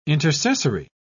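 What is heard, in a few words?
"intercessory", English, British English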